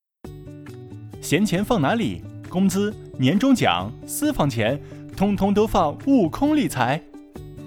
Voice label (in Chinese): 活力广告